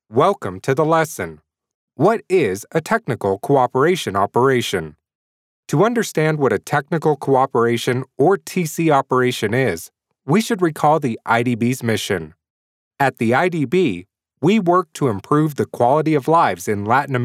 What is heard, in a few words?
e learning